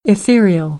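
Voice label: "ethereal", American English; AmericanBritish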